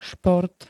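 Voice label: "šport", Slovak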